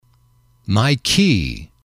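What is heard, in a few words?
"key", English, male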